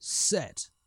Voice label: Set, Male; TS Sounds; falling rooms stress test; imvest stress test